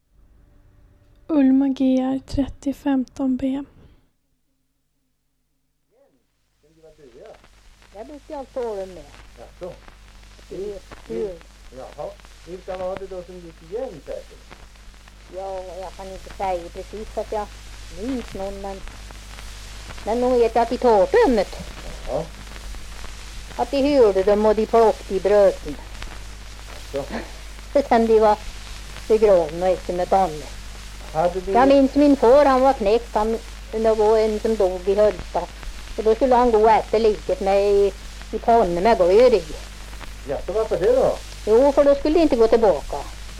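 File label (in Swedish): Östra Ryd Östergötland, dialekter; Folkminnen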